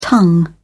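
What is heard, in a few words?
"tongue", British English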